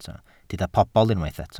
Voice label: "pobl", Welsh

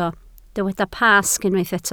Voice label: "Pasg", Welsh

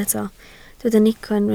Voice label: "nico", Welsh

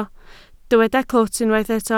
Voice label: "clwt", Welsh

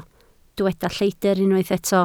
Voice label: "lleidr", Welsh

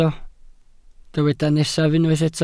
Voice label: "nesaf", Welsh

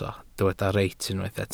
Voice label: "reit", Welsh

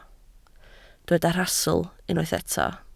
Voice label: "rhastl", Welsh

